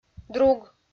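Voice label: "друг", Komi, female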